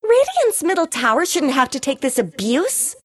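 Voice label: announcer tower attack radiant mid; Crystal Maiden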